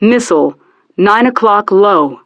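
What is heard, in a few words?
"Missile 9 O'Clock Low", English; DCS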